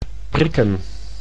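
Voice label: "prikken", Dutch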